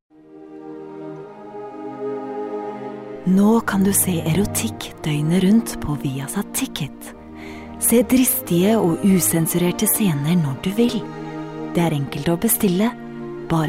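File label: Norwegian Norway female sales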